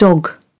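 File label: "dog", British English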